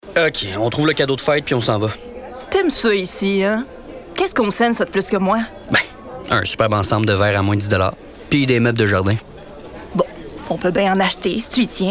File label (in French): Voix SANSMUS; Homesense